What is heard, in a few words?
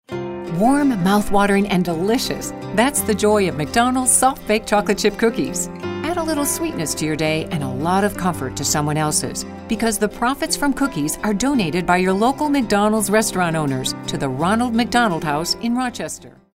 warm